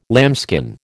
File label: "lambskin", American